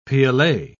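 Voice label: "piolet", British English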